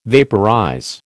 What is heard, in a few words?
"vaporize", American